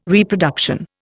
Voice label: "Reproduction", Indian